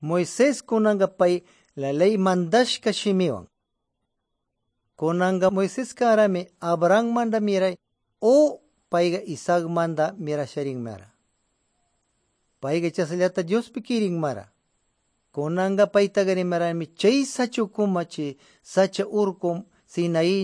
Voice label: good news quichua de pastaza

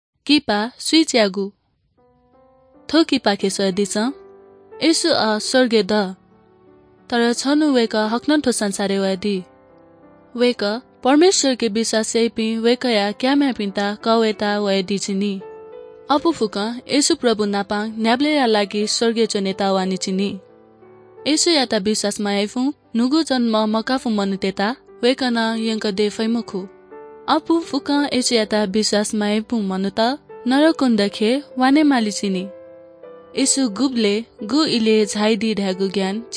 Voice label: good news newari bhaktapur

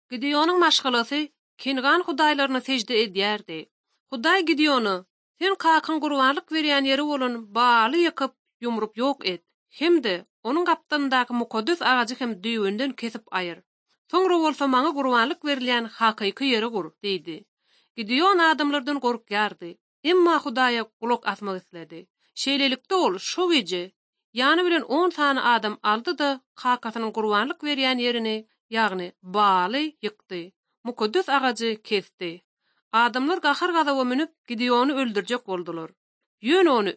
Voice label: turkmen, ot drama

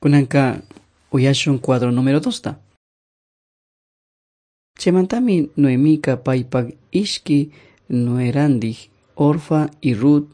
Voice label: servants of god quichua chimborazo

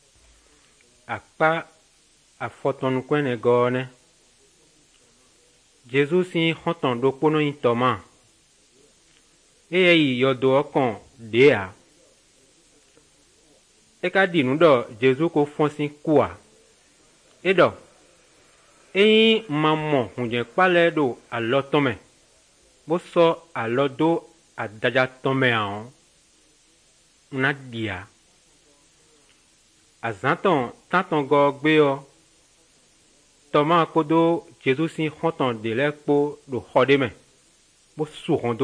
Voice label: good news, fon fongbe bible drama

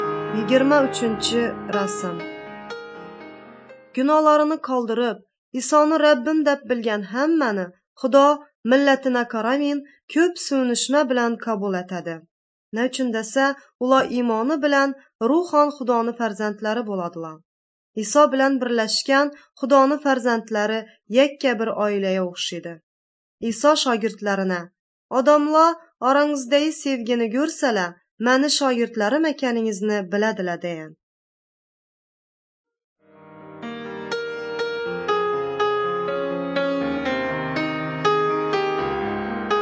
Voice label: uzbek northern kipchak; good news

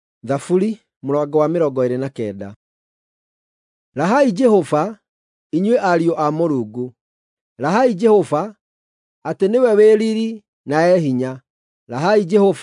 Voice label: psalms, gikuyu drama

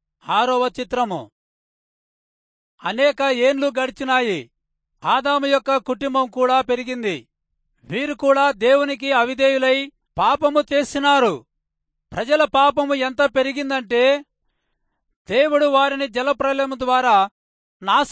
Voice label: telugu rayalseema; good news

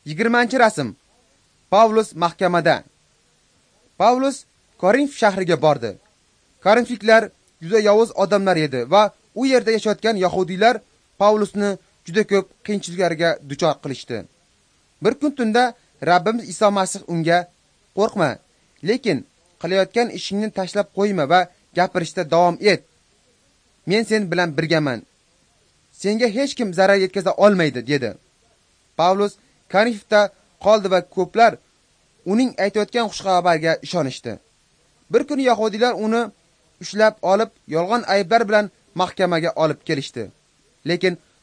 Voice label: uzbek northern; acts of the holy spirit

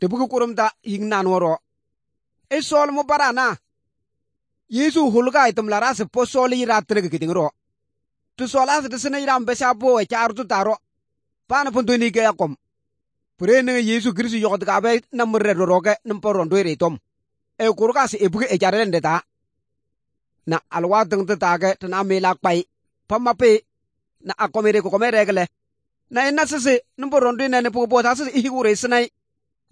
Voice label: good news, kabiye bible

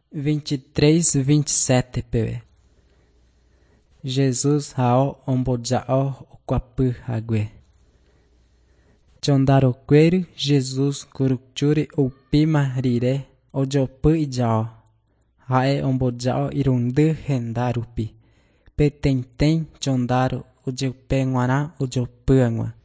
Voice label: john guarani mbya, bible

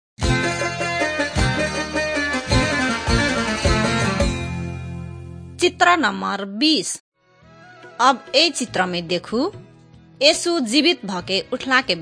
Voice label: maithili thetiya

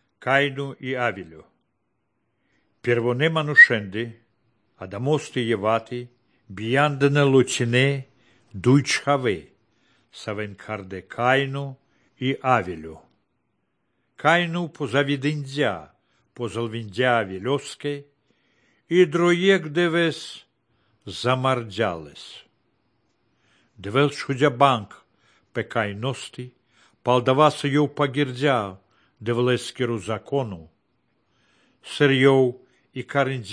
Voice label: good news baltic romani; visagalis dievo zmogus; romani baltic nvs nt drama

